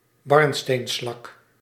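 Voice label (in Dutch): "barnsteenslak", Dutch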